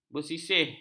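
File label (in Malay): "bersisih", Malay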